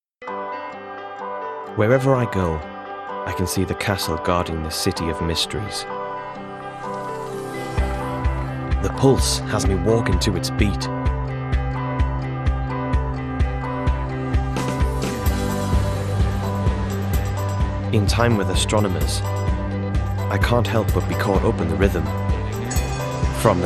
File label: Narration; Dream In The Land